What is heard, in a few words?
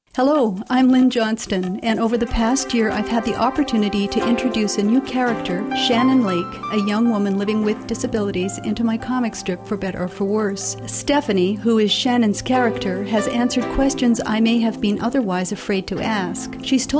radio spot